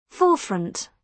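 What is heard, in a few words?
"forefront", British English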